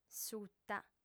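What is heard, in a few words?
"suta", lingua sarda, female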